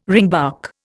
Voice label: "ringbark", Indian